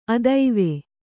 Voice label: "ಅತ್ವ", Kannada

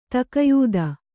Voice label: "ತಕಯೂದ", kannada

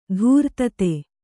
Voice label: "ಧೀರೋದಾತ್ತ", Kannada

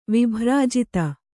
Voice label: "ವಿಭ್ರಾಜಿತ", Kannada

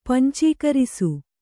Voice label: "ಪಂಚೀಕರಿಸು", Kannada

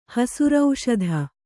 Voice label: "ಹಸುರೌಷಧ", Kannada

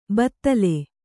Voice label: "ಭೂತಾಲಿ", Kannada

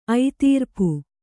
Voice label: "ಐತೀರ್ಪು", Kannada; aitīrpu; noun

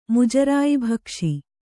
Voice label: "ಮುಜರಾಯಿಭಕ್ಷಿ", Kannada

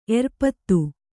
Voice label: "ಎರ್ಪತ್ತು", kannada

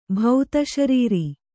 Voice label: "ಭೌತಶರೀರ", Kannada

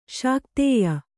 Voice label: "ಶಾಕ್ತೇಯ", kannada

